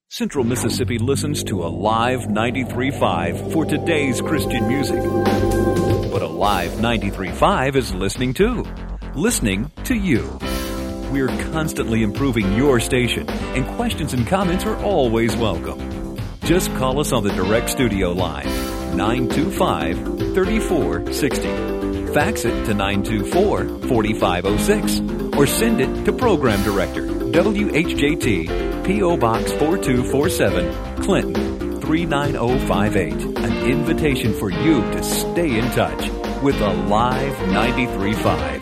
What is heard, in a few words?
Feedback Solicitation Promo